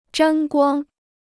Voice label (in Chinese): "沾光", Chinese